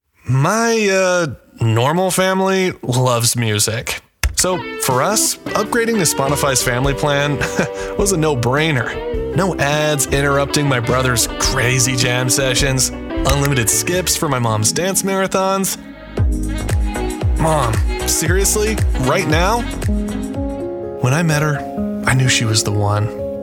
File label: Commercial